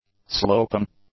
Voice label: "sloping", English; adjectives